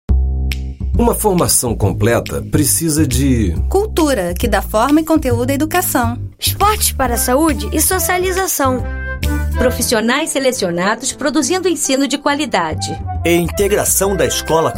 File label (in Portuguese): Spot de Rádio; Colégio Santa Monica